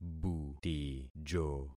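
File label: "butijo", concatenated syllables; Affixing experiment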